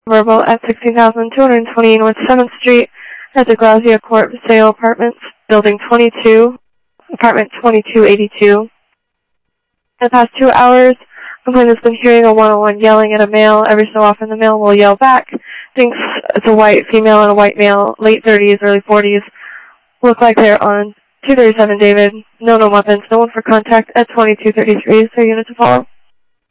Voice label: Phoenix PD, scanner; ThompsonPeakHigh

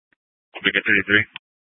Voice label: Mesa PD Central Dispatch, Scanner

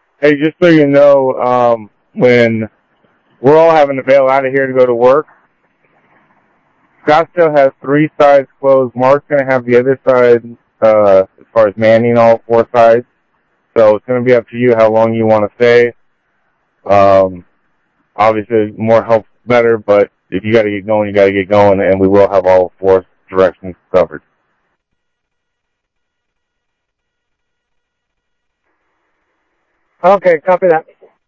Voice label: Mutual Aid, scanner audio; ThompsonPeakHigh; OCEAN 6

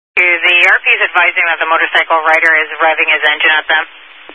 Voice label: Highway Patrol, Phoenix Metro East Area, scanner

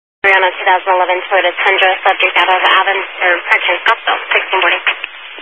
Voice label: Highway Patrol, scanner; DPS

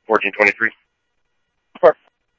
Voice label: PD Police, scanner audio; ThompsonPeakHigh

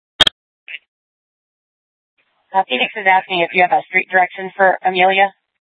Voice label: Scottsdale PD Nth Dispatch; Regional Wireless Cooperative (RWC); Thompson Peak High

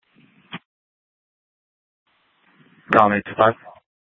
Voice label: Sheriff, scanner P25

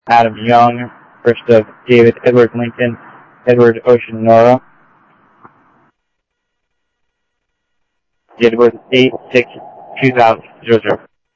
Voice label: Info Sheriff - Common, scanner audio; ThompsonPeakScot; MCSO

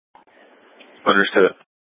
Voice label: Public Transit System Light Rail Ops, scanner

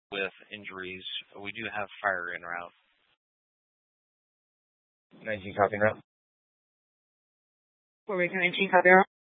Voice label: Mesa PD Dispatch

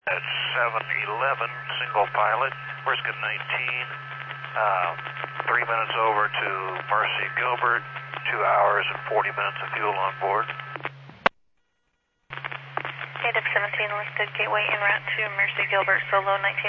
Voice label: Medevac, scanner; NativeAirAmb

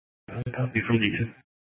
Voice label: Scottsdale PD Sth Dispatch, scanner

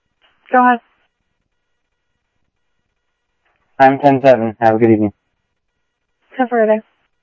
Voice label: Security, scanner audio

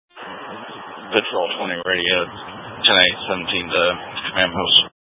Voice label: Mutual Aid-Intersystem Interop B, scanner

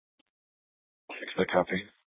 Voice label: Mesa PD Central Dispatch, scanner; Mesa Simulcast

